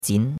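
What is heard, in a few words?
"jin2", Chinese; Cours de Chinois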